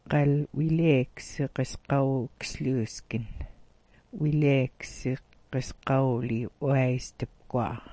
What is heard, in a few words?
"'wiileeksagasgaawt", smalgyax